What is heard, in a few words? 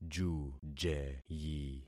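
"jujeyi", concatenated syllables; Affixing experiment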